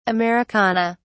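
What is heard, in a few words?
"americana", English, British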